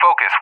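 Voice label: "Focus", English; CallSign; DCS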